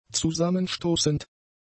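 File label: "zusammenstoßend", German; adjectives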